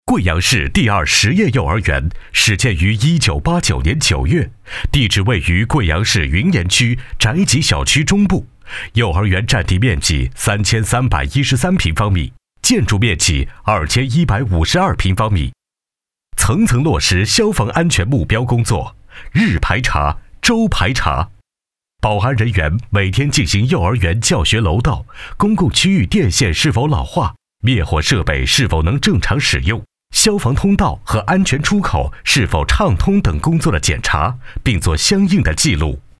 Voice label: 男 工作纪实 消防工作纪实; 实验幼儿园

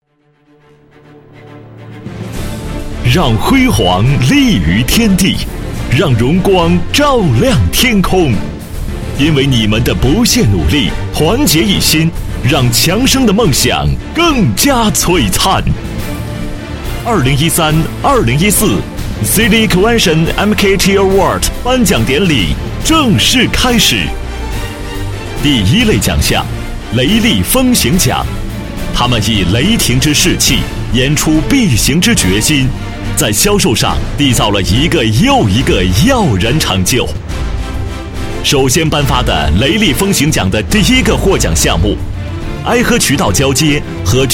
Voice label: 男声配音; 靓仔专题; 强生颁奖

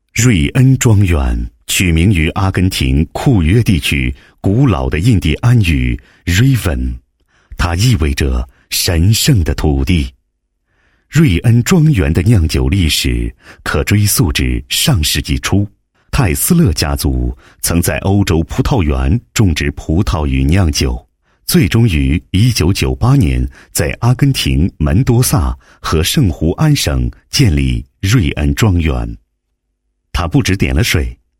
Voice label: 男 酒类 专题, 国语男声配音, 浑厚; 浑厚专题; 瑞恩葡萄酒

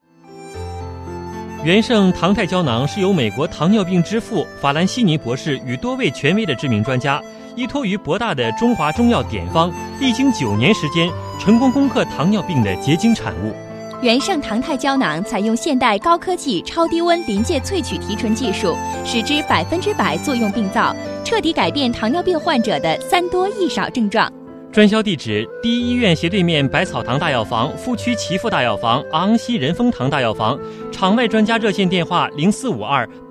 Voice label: 男 糖尿病医药广告样音, 北方经济型

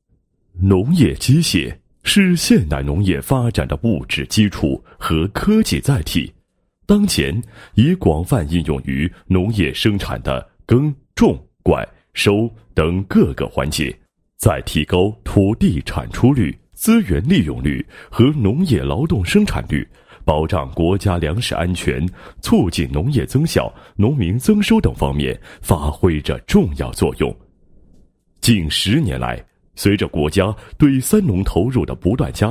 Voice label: 男 宣传片 智慧农业机械宣传简介

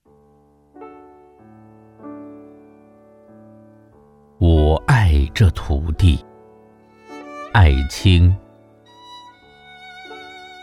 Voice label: 男, 诗歌朗诵; 我爱这土地

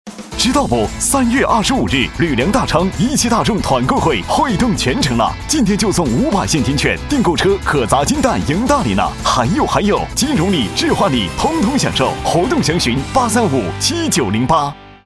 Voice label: 男 广告, 国语男声配音; 吕梁大昌一汽大众

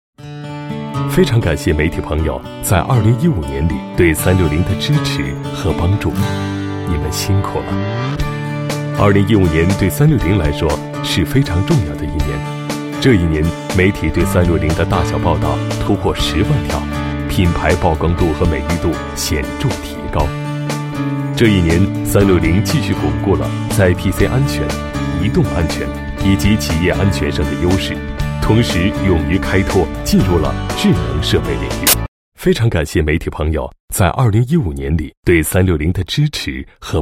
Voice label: 男 专题 网络安全, 国语男声配音

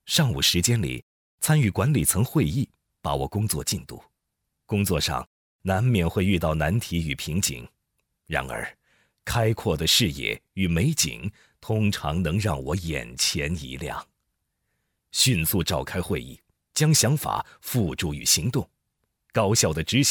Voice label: 自白独白; 中奥鑫天

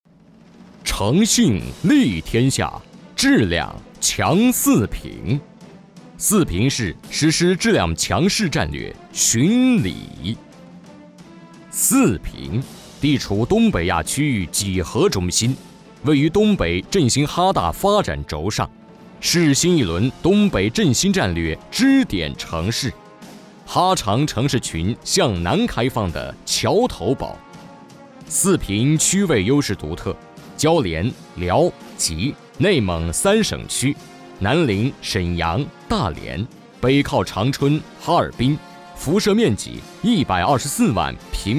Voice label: 男 正气 力度 大气; 四平市战略巡礼

